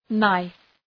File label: "knife", Προφορά